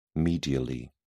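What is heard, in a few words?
"medially", English, British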